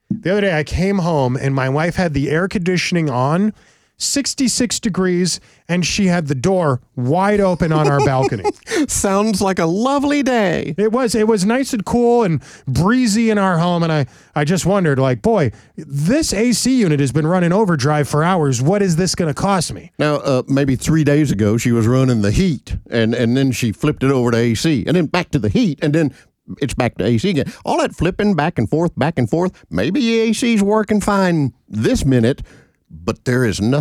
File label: RADIO AD; nicks plumbing radio ads